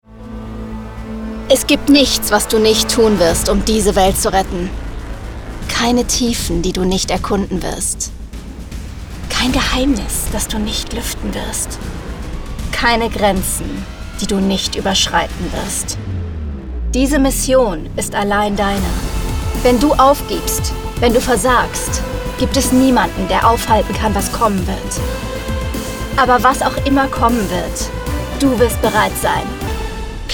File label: DE voiceover; Playstation